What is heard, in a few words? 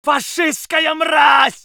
voice sov infantry